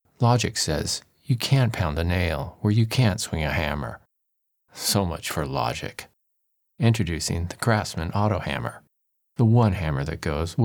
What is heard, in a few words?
foreigner